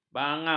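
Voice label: "bangau", Malay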